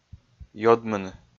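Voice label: "ёдмыны", Komi, male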